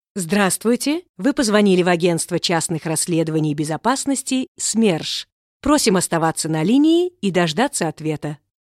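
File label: Russian IVR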